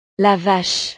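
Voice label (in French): "la vache", French; la vaca; animales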